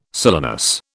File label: "silenus", British